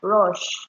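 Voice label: "rush", Nigerian Pidgin, female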